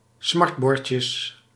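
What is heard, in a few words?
"smartbordjes", Dutch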